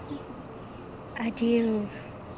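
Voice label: "adieu", Vietnam, female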